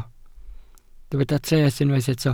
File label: "treth", Welsh